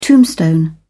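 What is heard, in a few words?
"tombstone", British English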